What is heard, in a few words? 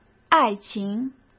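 "爱情", Mandarin Chinese; chinese-flashcards; hsk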